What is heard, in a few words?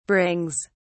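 "brings", British English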